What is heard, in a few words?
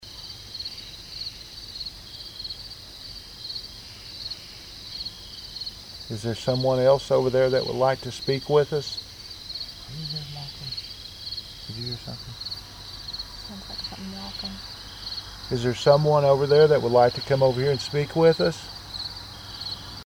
Unexplained Female Voice, cemetery; Case 026 Hebrew-Bryant Cemetery II; Jewish part of cemetery